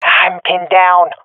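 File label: pinned, swat female; vo; Counter Strike Model Pack; CS:GO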